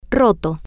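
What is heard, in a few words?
"ro-to", Spanish, female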